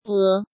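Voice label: "É", Chinese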